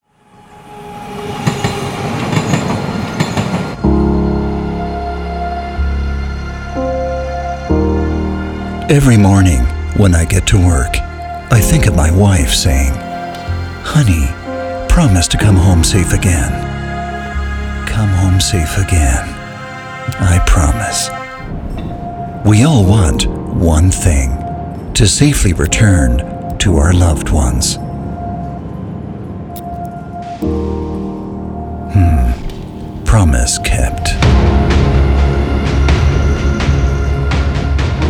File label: commercial; abus lockout